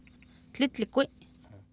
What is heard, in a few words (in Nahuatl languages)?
"tlItlikwI", Oapan, female; Oapan minimal pairs 1998